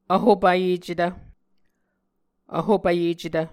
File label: "vhopvyecetv", Muscogee